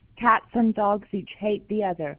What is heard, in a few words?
Female IS96